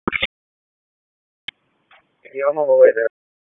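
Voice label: Raleigh Police Northeast District Dispatch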